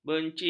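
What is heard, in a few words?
"benci", Malay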